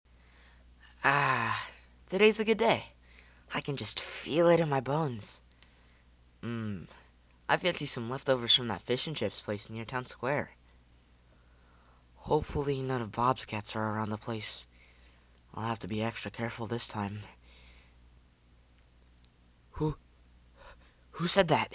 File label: Youth